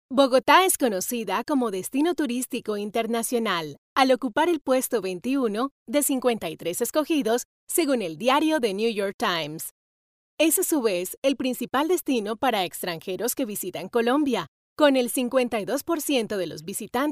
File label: locutora espanol neutro latin american voiceover